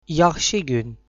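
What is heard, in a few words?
good afternoon, Azerbaijani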